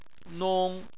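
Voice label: "nong" first tone, Chinese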